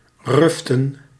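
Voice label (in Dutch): "ruften", Dutch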